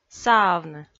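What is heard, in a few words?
"саавны", Komi, female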